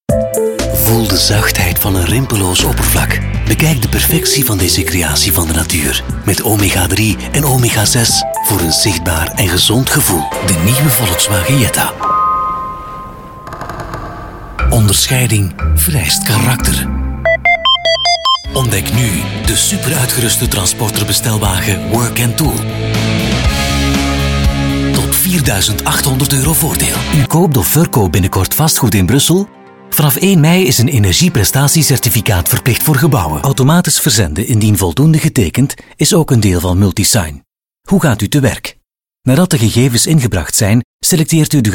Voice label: Flemish

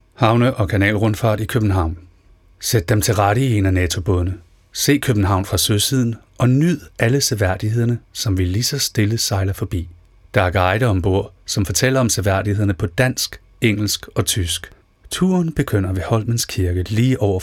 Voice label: Danish

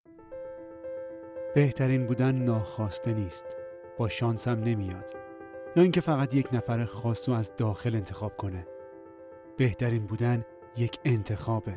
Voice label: Commercial Farsi; GoLocalise

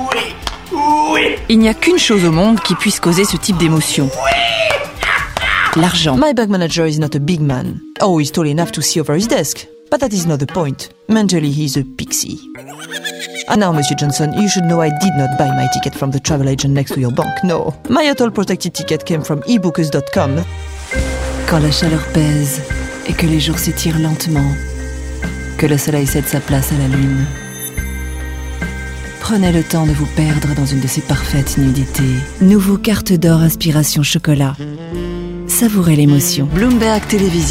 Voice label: French Commercial

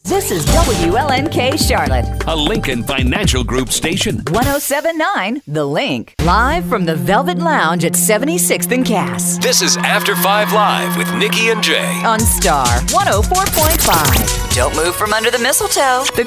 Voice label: English US

